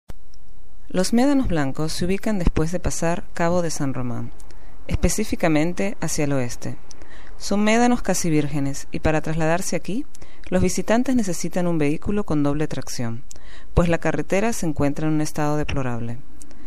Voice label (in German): Venezuela W